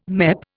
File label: "MEP", Indian